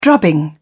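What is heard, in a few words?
"drubbing", British English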